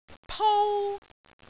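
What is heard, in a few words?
"pou1", Cantonese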